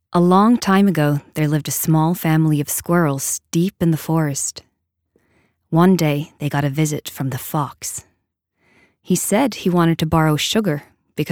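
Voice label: us narrator, American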